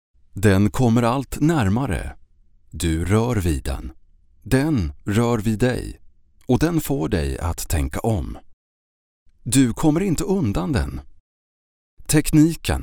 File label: locutor sueco swedish voiceover